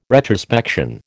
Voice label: "retrospection", American